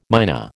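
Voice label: "myna", American English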